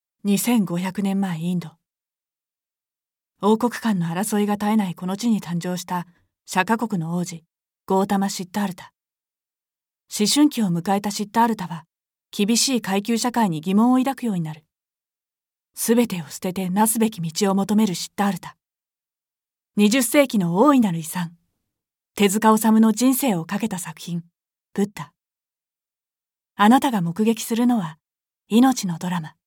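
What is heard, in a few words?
narration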